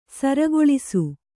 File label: "ಸೂರೆಗೊಳಿಸು", kannada